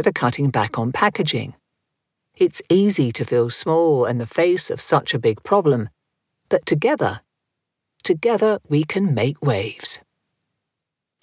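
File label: explainer why plastic pollu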